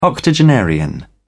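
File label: "octogenarian", English, British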